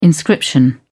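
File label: "inscription", British English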